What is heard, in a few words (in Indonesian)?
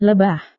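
"lebah", Indonesian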